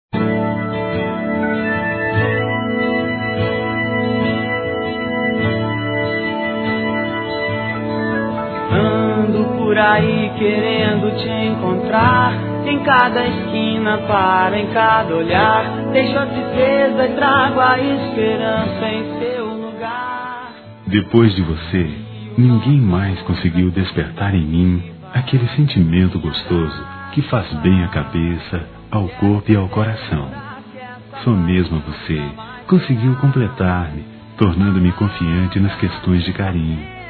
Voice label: SAUDADES ROMANTIC. M; Telemensagens

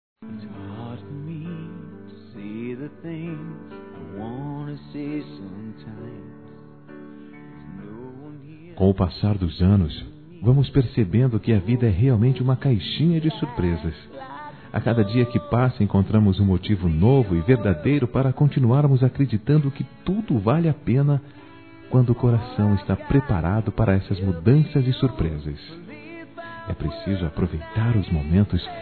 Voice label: GESTANTE M, Telemensagens; masc; Telemensagens 2017

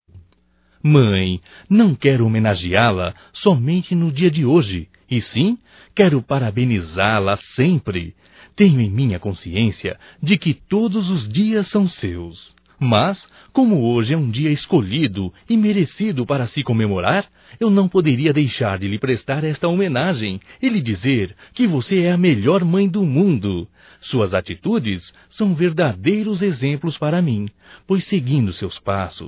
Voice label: Dia das Mães Masculino; Telemensagens 2017

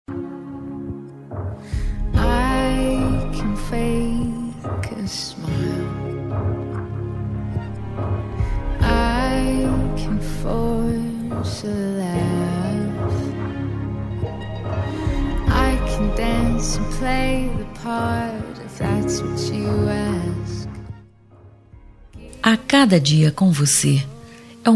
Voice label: EU TE AMO human F; Amor

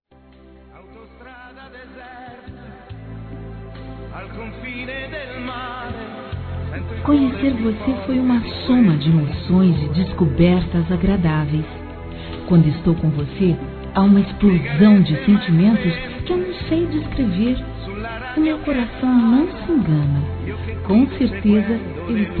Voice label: Quero Te Encontrar Feminino; Telemensagens; Românticas

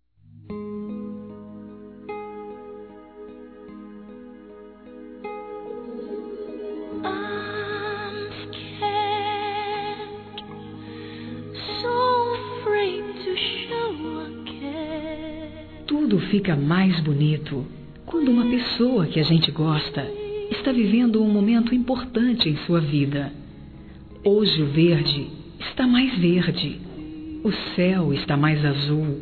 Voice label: Aniversario Geral F; Aniversários; Aniversários diversos; fem

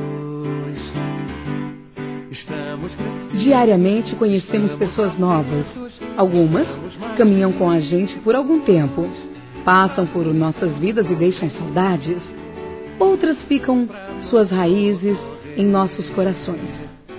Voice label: ANO NOVO AMIGO(A) F; Telemensagens; Natal e Ano novo; ANO NOVO PAMIGO(A)

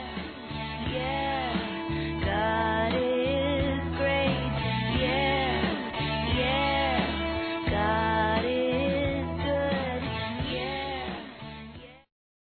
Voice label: BOA VIAGEM, fem; Telemensagens; Despedida Boas Vindas; Telemensagens 2017

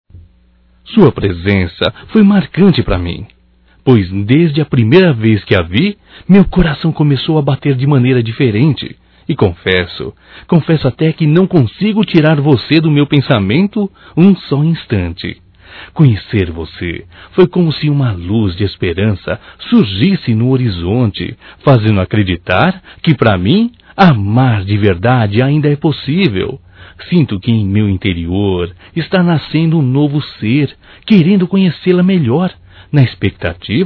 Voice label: Romântico Masculino

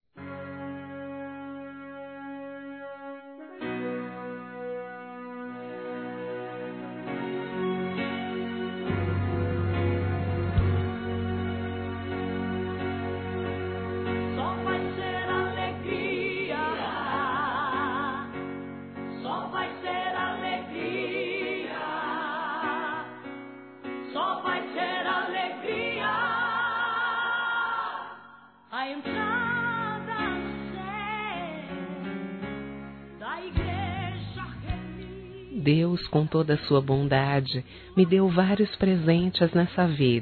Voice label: Mensagem Para Filha Feminino, Gospel; Telemensagens; Telemensagens 2017

